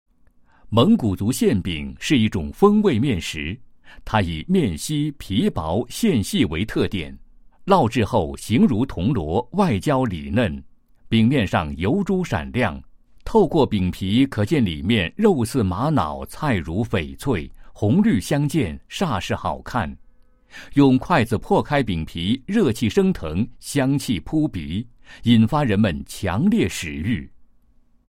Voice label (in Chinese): 男, 产品介绍; 蒙古族馅饼介绍